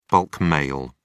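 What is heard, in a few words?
"bulk mail", British English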